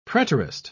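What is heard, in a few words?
"preterist", British English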